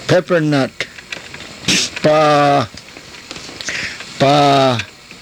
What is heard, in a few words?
"paah", karuk